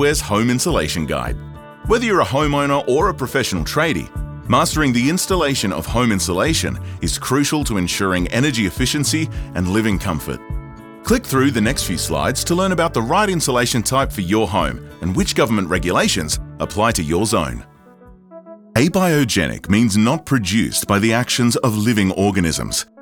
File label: Explainer Elearning